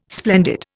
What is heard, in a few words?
"splendid", Indian